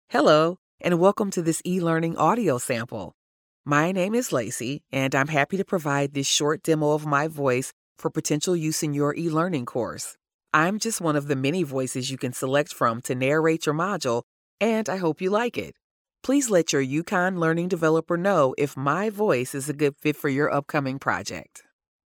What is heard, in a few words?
Casual, voice talent